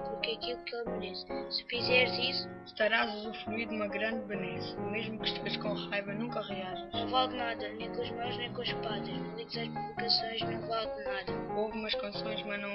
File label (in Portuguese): Anuncio contra o bullying